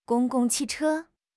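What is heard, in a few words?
"gong1 gong4 qi4 che1", Chinese; HSK; HSK2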